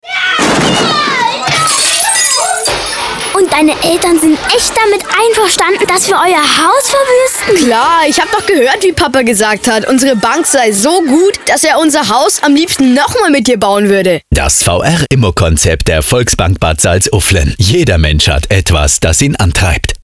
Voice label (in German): Funkspot Volksbank; Bad Salzuflen